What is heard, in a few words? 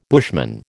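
"bushman", American English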